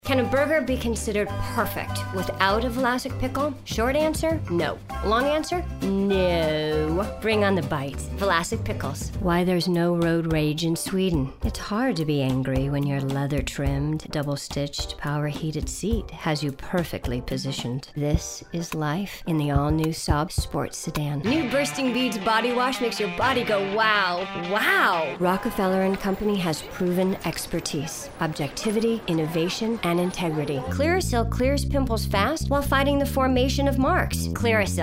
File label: Commercial